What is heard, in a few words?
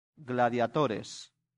"gladiatores", latin; santillana